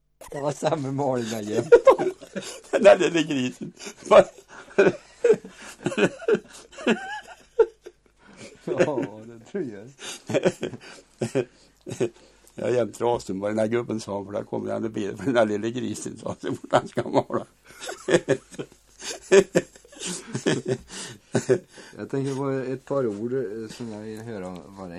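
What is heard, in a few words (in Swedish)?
Brunskog Värmland, dialekter; Svenska sagor; Folkminnen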